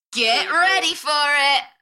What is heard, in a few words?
UK female, Enthusiastic; Get ready for it